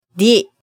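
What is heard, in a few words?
"dhi", Japanese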